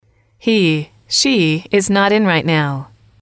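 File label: he she is not in right now, female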